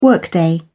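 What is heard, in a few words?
"workday", British English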